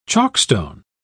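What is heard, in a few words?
"chalkstone", British English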